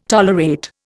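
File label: "toleration", Indian; Dictionary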